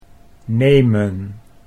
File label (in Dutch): "nemen", Dutch